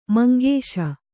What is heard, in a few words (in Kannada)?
"ಮಂಗೇಶ", kannada